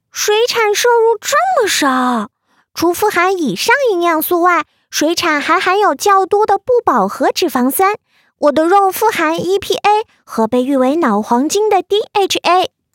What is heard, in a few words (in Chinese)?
角色软萌可爱女童科普动画